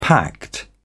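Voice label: "pact", British English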